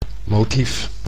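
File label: "motief", Dutch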